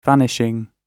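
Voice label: "vanishing", British English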